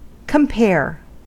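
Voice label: "compare", American English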